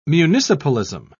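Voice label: "municipalism", British English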